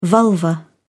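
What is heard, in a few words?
"vulva", English, British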